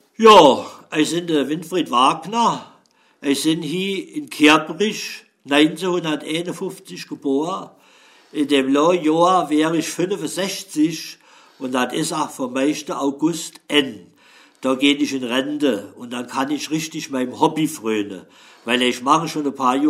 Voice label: Vorstellung, O-Ton Hunsrück

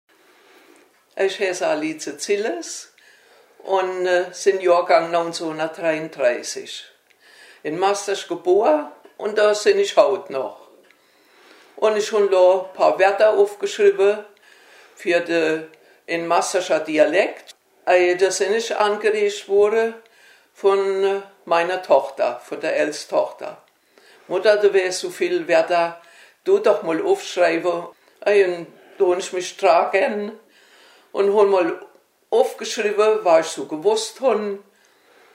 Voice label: stellt sich vor, Hunsrück; Mastershausen

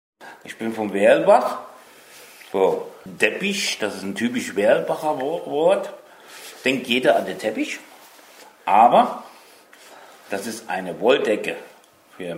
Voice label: altweidelbacher Sprache

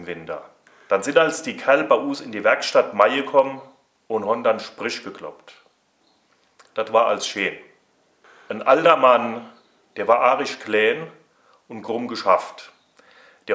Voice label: geminner alt sprooch; Gemünden

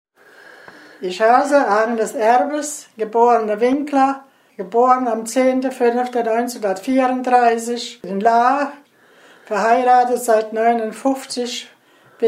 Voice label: stellt sich vor, O-Ton Hunsrück

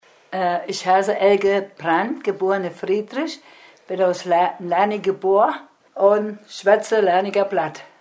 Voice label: vorstellung, Hunsrück; Leideneck

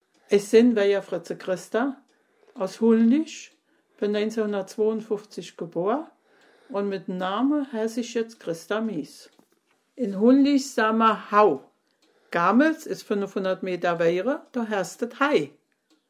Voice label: vorstellung, Hunsrück; Hollnich

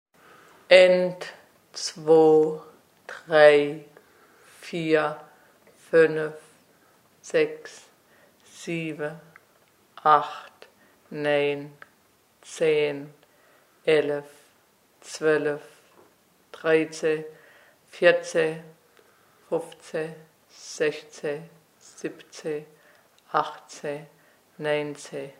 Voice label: zahlen, O-Ton Hunsrück; Laufersweiler

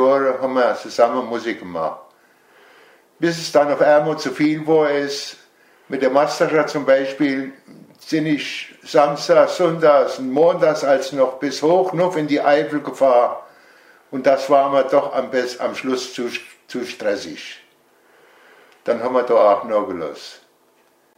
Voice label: stellt sich vor, O-Ton Hunsrück; Kümbdchen

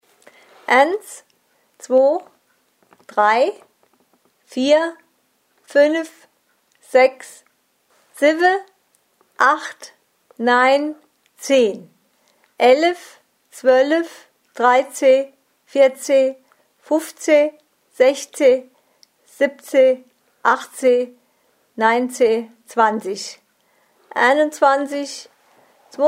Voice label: Zahlen, O-Ton Hunsrück

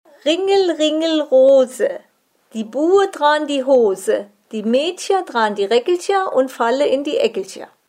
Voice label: kinderreim ringel ringel rose; Dichtelbach

